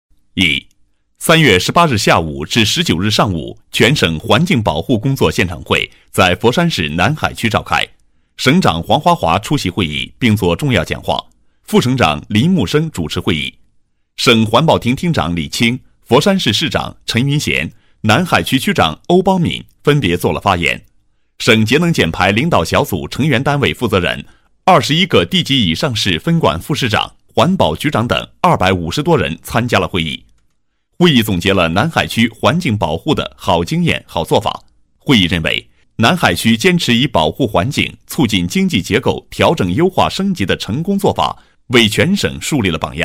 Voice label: 男 新闻, 国语男声配音; 新闻(环保)

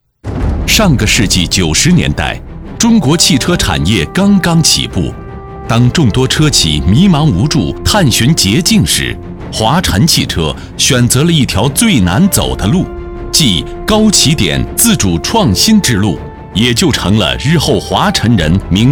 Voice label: 男 汽车广告 大气磅礴; 华晨

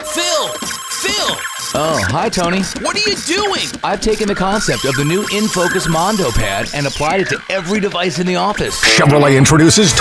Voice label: 外籍男 音频广告 Audio Production

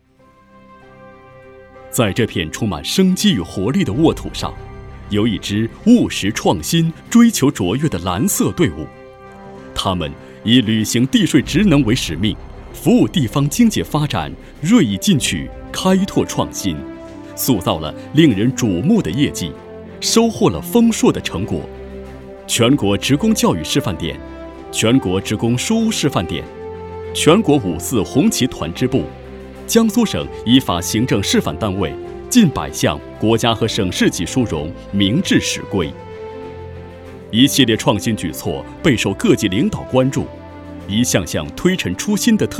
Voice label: 男 汇报片 地税, 国语男声配音; 大气专题; 海门

